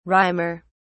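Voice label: "rimer", British English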